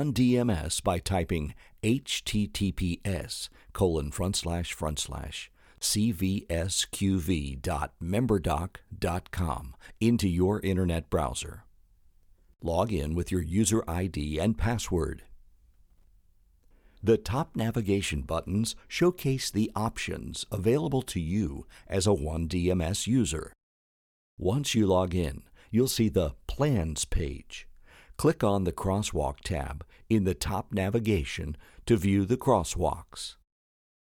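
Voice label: E-Learning, Corporate Training, male voice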